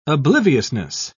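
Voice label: "obliviousness", British English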